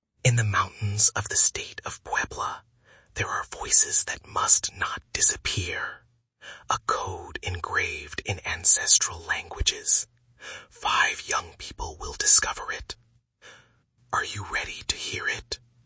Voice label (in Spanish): narracion teaser ingles; Codigo Ancestral